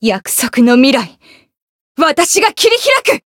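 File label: chant jp, Japanese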